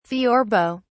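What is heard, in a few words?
"theorbo", British English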